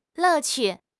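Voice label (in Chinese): "乐趣", Chinese; HSK6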